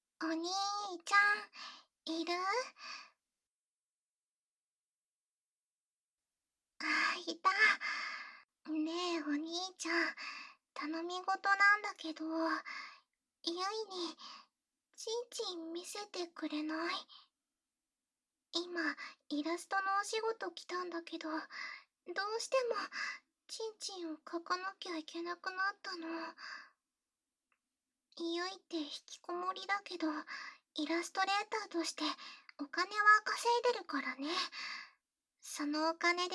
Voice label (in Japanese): 効果音なし, ASMR; 妹のチンポ観察と、フェラチオ体験会